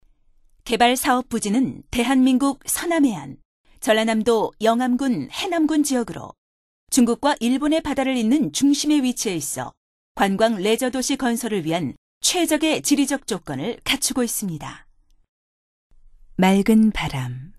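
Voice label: Korean, female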